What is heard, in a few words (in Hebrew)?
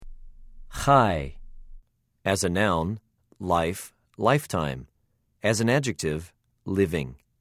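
"חַיִּים", Hebrew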